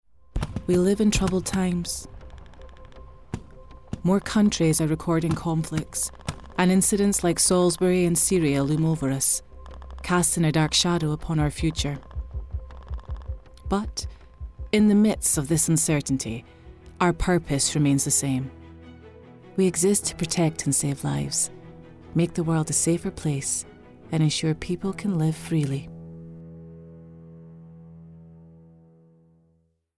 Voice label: Charity Appeal Commercial